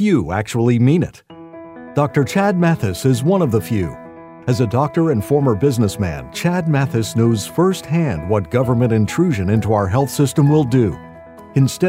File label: Positive, political